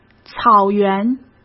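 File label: "草原", Mandarin Chinese; chinese-flashcards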